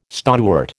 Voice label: "stonewort", American